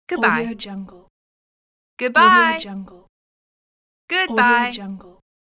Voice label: female saying goobye